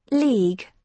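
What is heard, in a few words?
"league", British English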